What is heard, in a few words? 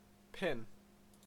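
"pin", Canadian English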